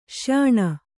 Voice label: "ಶ್ಯಾಣ", Kannada

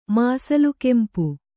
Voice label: "ಮಾಸಲುಕೆಂಪು", Kannada

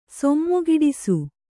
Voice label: "ಸೊಮ್ಮುಗಿಡಿಸು", Kannada

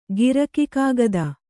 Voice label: "ಗಿರಕಿಕಾಗದ", Kannada

